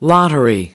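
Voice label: "lottery", American English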